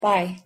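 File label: "bye", English; pronunciation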